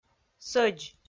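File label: "сӧдз", Komi, female; audio dict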